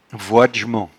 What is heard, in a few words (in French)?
"voidjement", patois jura